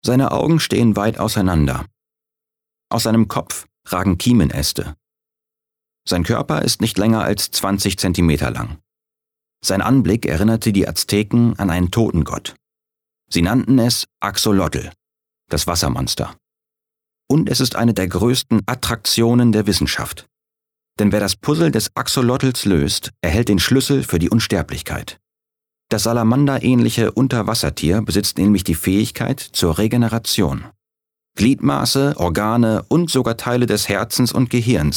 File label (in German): Dokumentarischer Text; LOFT VOICES